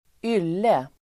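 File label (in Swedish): "ylle", Swedish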